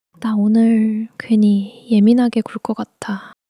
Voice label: sadness